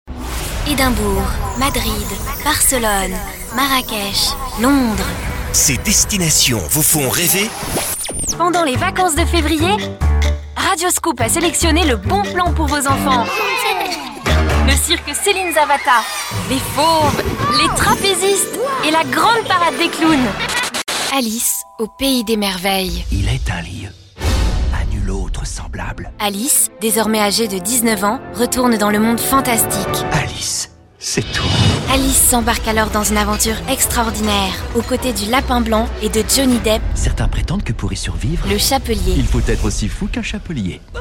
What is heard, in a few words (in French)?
french f commercial; voice demos